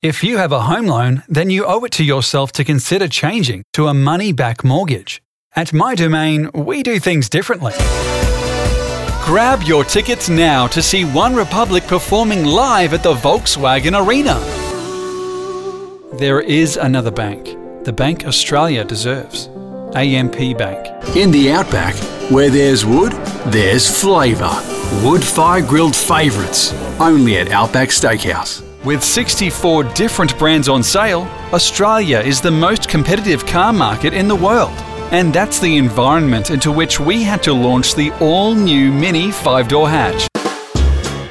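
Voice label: US English, Commercial; GoLocalise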